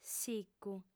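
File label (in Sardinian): "sicu", Sardinian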